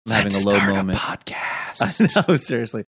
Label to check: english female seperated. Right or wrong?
Wrong